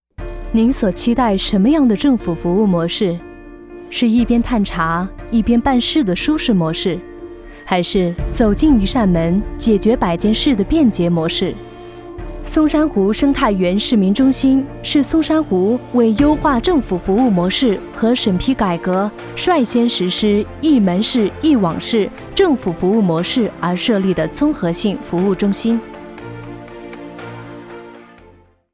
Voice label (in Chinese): 女 生态园市民中心; 松山湖